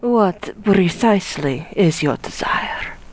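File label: female group; NWNDS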